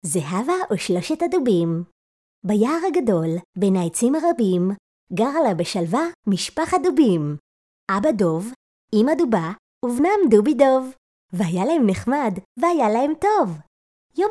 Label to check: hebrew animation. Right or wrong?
Right